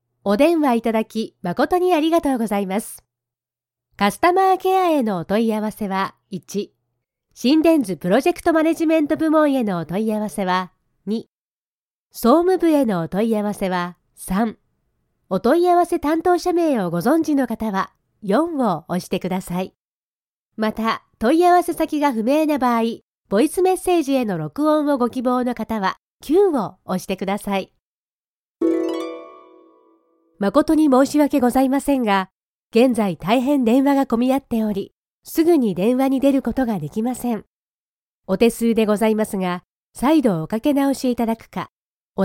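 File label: interactive voice response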